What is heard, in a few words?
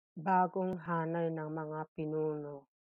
Tagalog